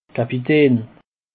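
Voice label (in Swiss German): "kapitain", Alsatian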